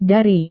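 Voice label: "dari", Indonesian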